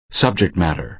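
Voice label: "subject matter", American English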